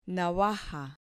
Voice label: "navaja", Spanish

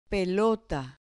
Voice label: "pelota", Spanish

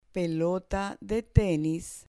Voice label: "pelota de tenis", Spanish

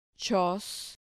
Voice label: "chos", Spanish syllable

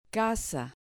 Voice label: "casa", Spanish

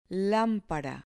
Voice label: "lampara", Spanish

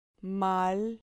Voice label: "mal", Spanish

